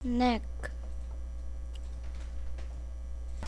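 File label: "neck", spoken word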